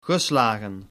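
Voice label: "geslagen", Dutch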